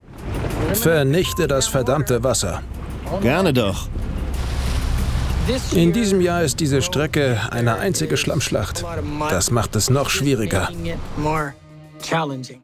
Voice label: Voice Over, maenner; Jadefieber

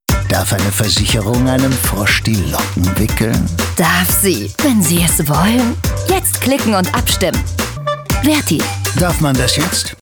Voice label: Spots SZENE, maenner; Verti Versicherung; Frosch

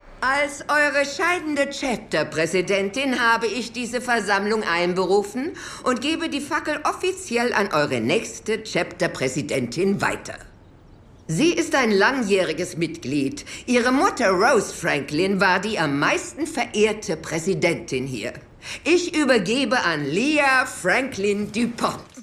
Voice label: Synchron, frauen; Our Kind Of People

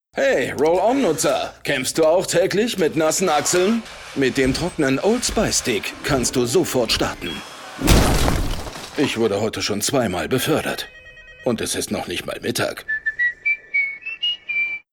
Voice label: Spots Szene, maenner; Old Spice Stick

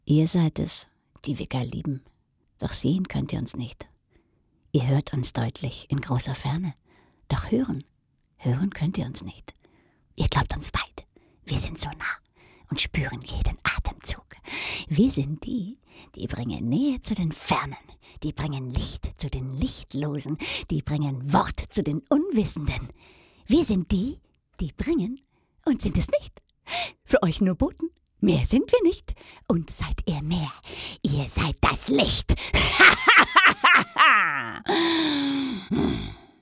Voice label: psycho, frauen

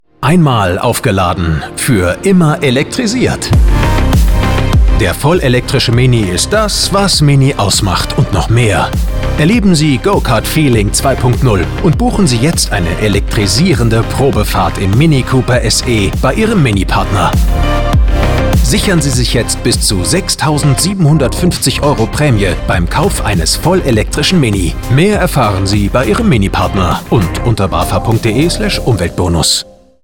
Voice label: Spots, maenner; Mini Cooper SE

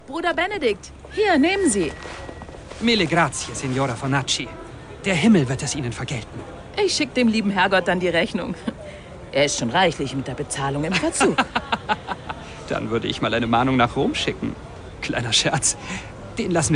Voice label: Hörspiel, frauen; Draculino

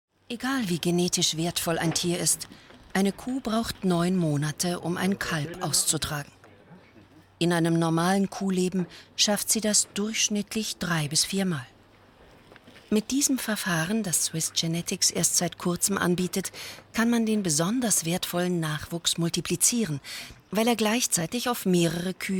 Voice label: Kommentar, Frauen; Die Schweiz und die Kuh

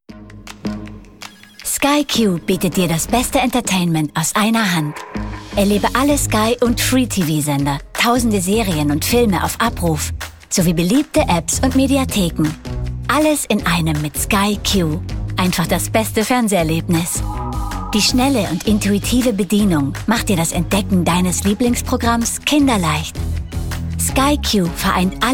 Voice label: Tutorial, Imagefilm, Frauen; Sky Q Tutorial

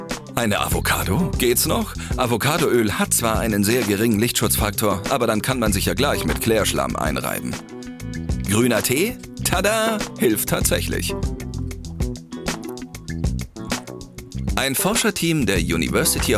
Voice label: Kommentar; Galileo

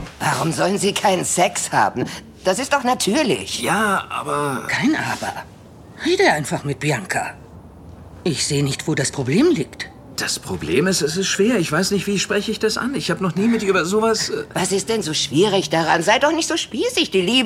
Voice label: Synchron, frauen; Die Patchworkfamilie

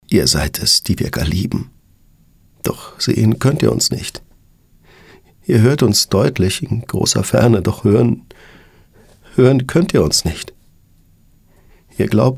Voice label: traurig; Sprecher, maenner

